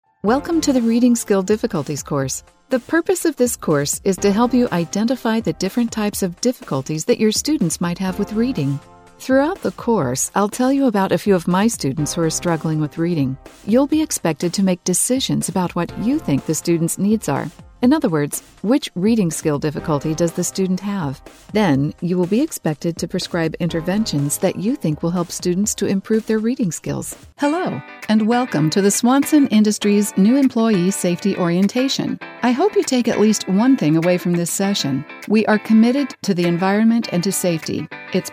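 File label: Corporate Training Elearning, en-AU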